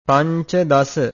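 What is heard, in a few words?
"pancadasa", Pali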